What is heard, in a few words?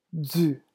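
"dzu", French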